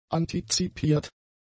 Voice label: "antizipiert", German; adjectives